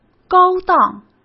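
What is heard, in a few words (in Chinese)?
"高档", Mandarin Chinese; hsk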